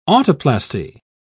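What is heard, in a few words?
"autoplasty", English, British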